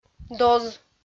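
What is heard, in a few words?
"доз", Komi, female; kpv audio dict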